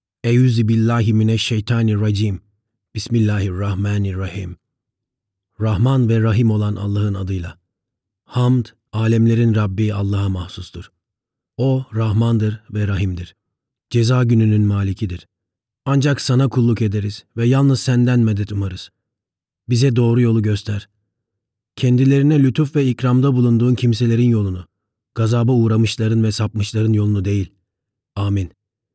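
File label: Turkish, voice over dubbing, male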